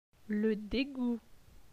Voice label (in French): "le degout", francais